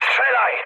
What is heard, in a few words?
attack position mood med, speech pol; War Thunder